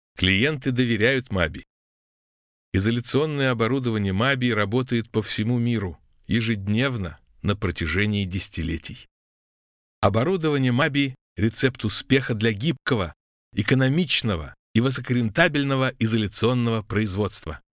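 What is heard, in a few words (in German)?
russisch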